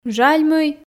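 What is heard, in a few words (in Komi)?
"жаль мый", Komi, female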